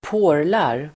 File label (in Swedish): "porlar", Swedish